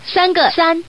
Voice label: common woman